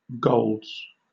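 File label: "golds", British English; pronunciations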